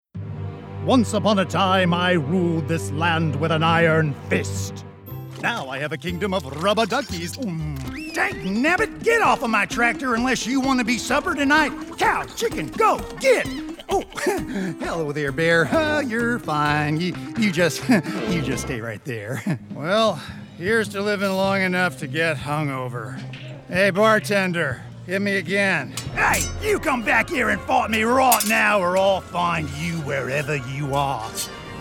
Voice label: Animation